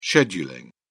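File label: "scheduling", British English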